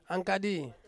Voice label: "angkadi", Ansus; coconut